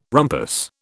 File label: "rumpus", British